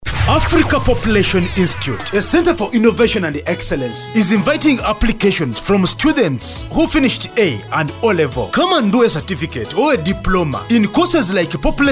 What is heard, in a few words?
Radio Advert